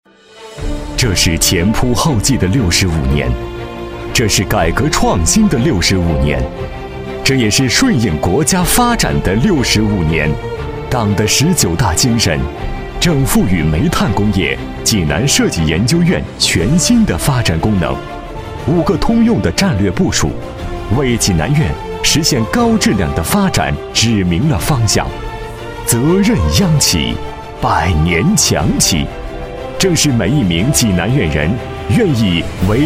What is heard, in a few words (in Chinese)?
男 品牌故事文本